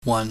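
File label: "wan", Cantonese, low level tone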